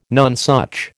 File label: "nonesuch", American